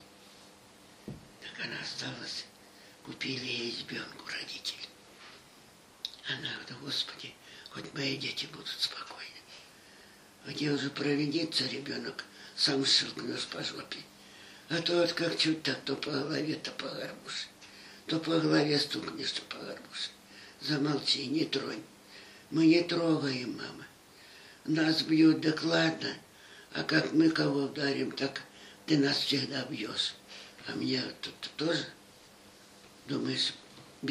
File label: middle ob dialect; горбушка